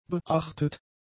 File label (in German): "beachtet", German; adjectives